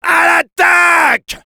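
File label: battle cry, French; "À l’attaque !"; Soldier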